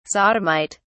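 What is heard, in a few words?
"sodomite", British English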